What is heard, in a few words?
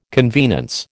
"convenance", American